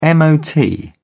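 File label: "mot", British English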